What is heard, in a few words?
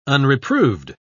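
"unreproved", English, British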